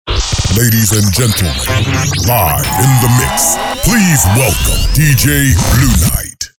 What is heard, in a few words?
with Effect; In The Mix